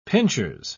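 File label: "pinchers", British English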